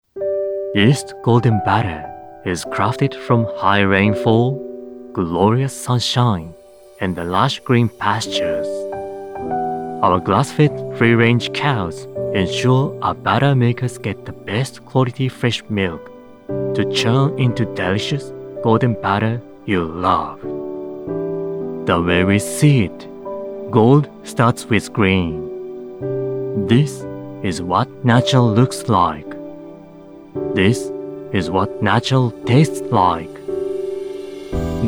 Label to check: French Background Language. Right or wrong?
Wrong